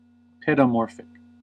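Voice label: "paedomorphic", American English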